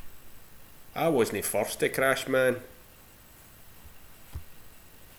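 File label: "furst", slang; Ah huvnae seen ye aboot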